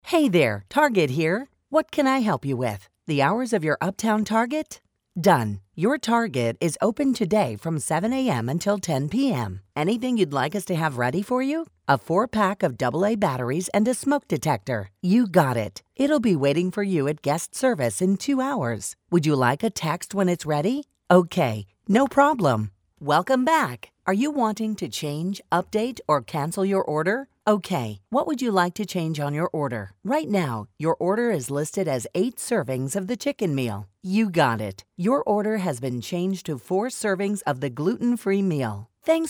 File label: VOICE ASSISTANT